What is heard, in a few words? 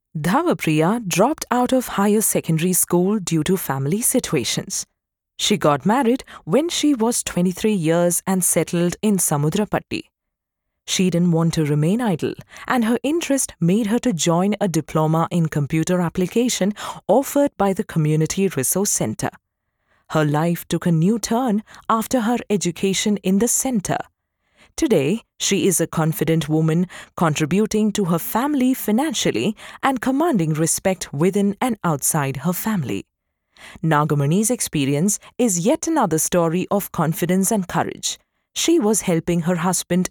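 Documentary english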